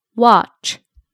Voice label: "watch", English